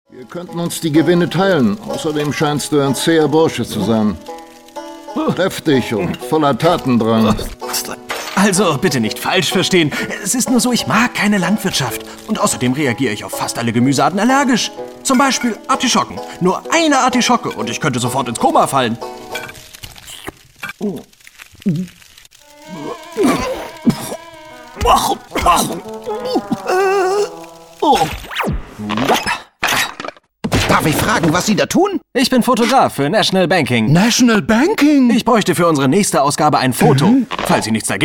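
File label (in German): hoerspiel, sprecher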